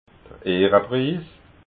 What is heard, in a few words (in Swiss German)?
"ehrapriis", alsace